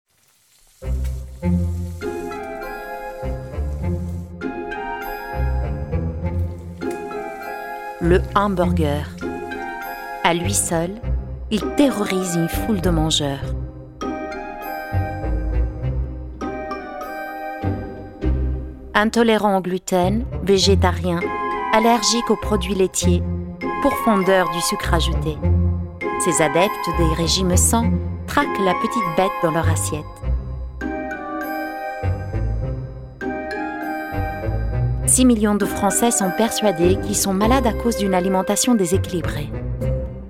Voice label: DOCUMENTARY, FRENCH FEMALE